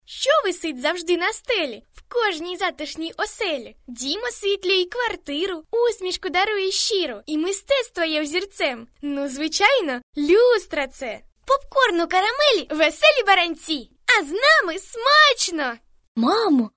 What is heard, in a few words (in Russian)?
девочка 13 лет